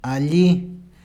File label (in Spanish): "allí", Peru Cuzco